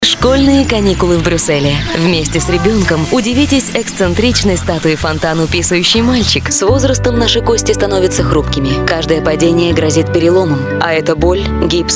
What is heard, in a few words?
Diktor